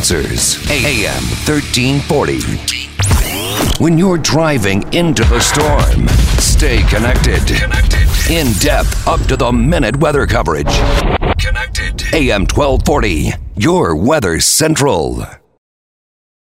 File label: News Talk